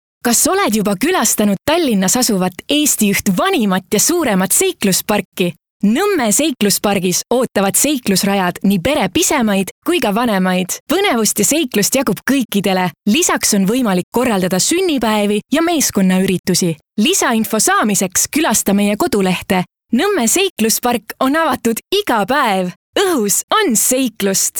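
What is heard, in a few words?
Estonian female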